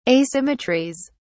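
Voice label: "asymmetries", British English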